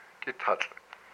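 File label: "deer fat lying across guts", Hupa, male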